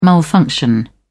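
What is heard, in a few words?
"malfunction", English, uk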